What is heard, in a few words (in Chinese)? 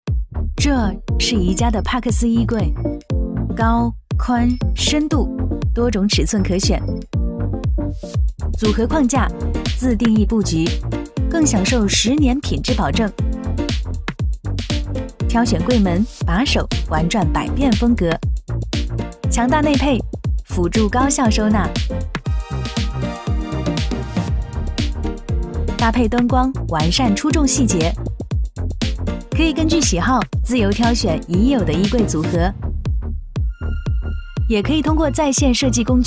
女 广告; 宜家帕克斯衣柜